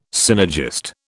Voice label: "synergist", British

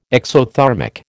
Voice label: "exothermic", American

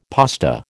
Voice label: "pasta", American

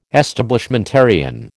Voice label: "Establishmentarian", American

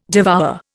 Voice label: "devour", Indian

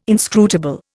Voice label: "inscrutable", Indian

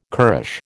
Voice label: "currish", American

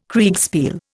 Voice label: "Kriegspiel", Indian

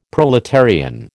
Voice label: "proletarian", American

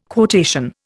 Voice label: "quotation", Indian

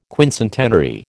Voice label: "quincentenary", American

